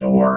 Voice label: "door", vox robot

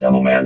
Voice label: "demoman", vox robot

